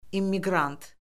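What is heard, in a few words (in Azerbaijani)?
"immiqrant", Azerbaijani; Orfoqrafiya Lüğəti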